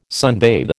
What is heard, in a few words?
"sunbathe", American